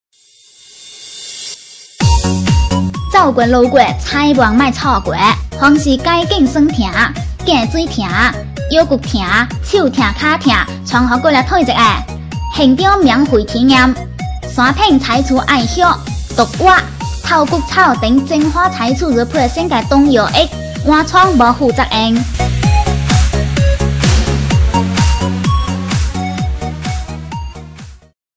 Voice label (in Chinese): 女 促销 潮汕话促销